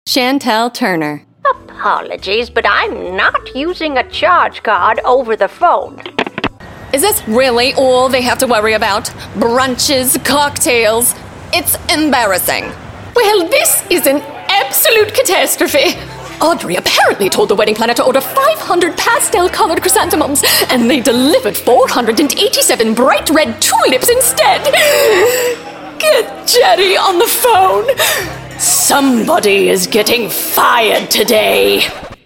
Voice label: Animation Voiceover